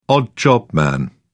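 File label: "odd job man", English, British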